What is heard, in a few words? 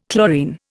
"chlorine", Indian